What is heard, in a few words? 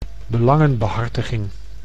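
"belangenbehartiging", Dutch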